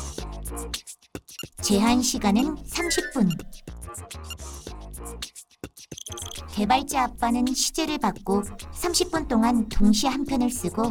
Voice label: 다큐프라임 AI 인공지능

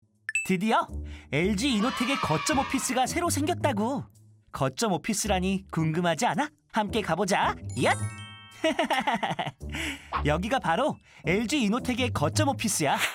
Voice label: 나레이션; LG이노텍

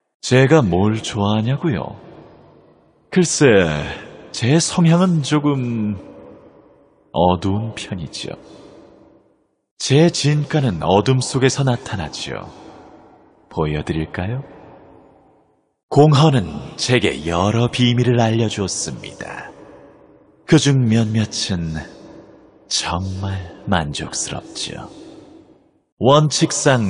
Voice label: 30대 무게감있고 능글맞은 남자 사악한; WOW 공허엘프

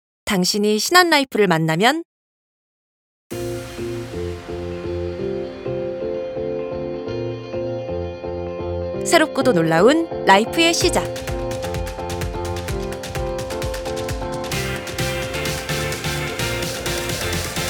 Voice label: 밝고 트렌디 일반인느낌

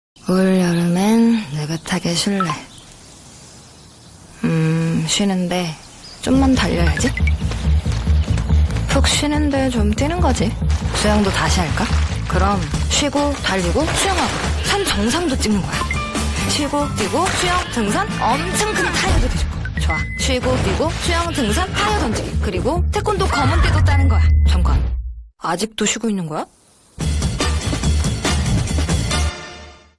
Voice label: 자연스러운 연기 20대; 애플워치

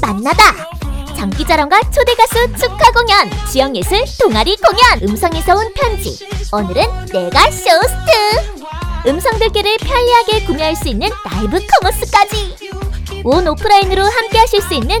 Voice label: 홍보 20대 톤 즐거운 축제

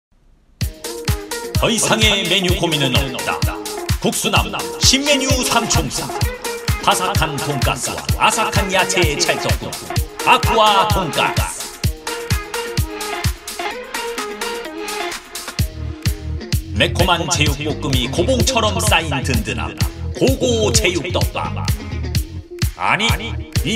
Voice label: 광고 레트로; 국수나무

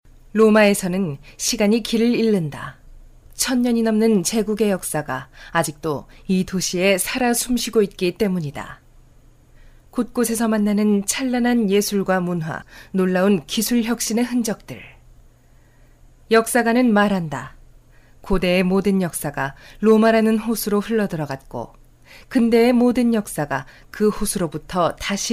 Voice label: 내레이션 힘있는

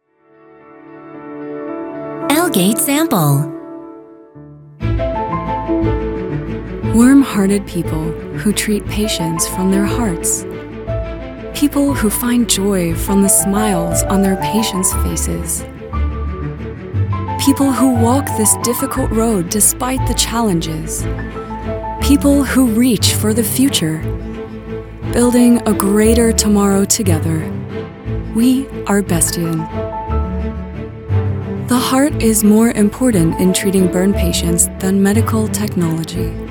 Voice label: 영어 여 차분한 톤

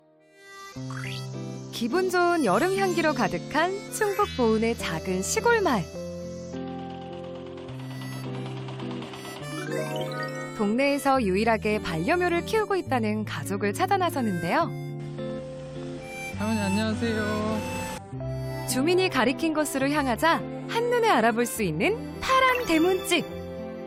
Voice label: 밝고 잔잔한 30대

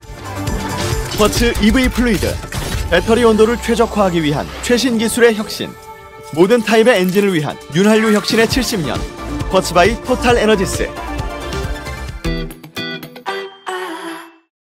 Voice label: 광고샘플 힘있는 자신있는; 쿼츠

